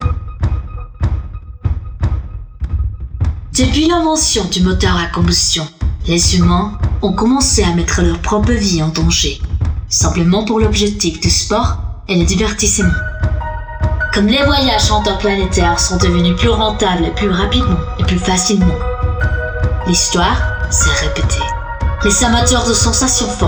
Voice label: Intro Vox French; quantum runners windows gamerip 2021